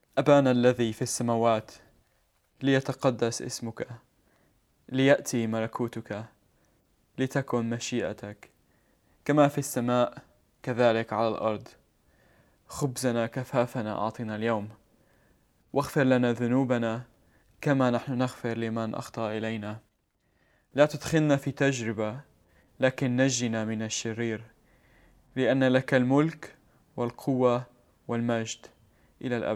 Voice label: Arabic Lord's Prayer